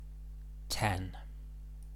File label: "ten", English